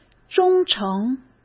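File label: "忠诚", Mandarin Chinese; chinese-flashcards; hsk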